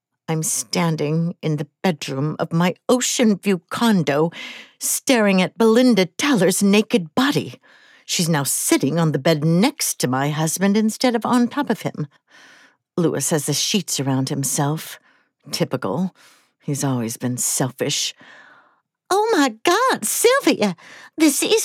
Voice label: Comedy F M F Caught in the Act